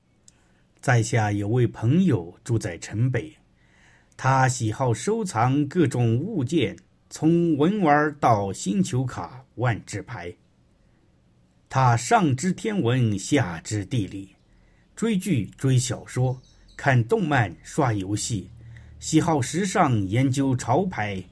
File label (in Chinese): 讲故事